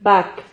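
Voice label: "bac", Spanish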